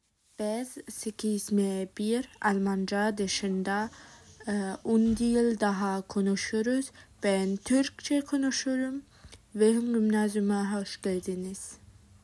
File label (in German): tuerkisch